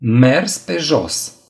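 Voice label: "walk", Romanian; gcompris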